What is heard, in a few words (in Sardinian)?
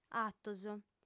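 "atos", female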